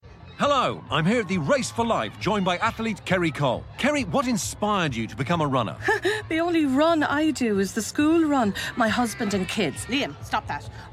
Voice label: UK Radio Full Mix; The Running Mum